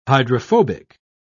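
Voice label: "hydrophobic", British English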